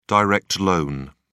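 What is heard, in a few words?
"direct loan", British English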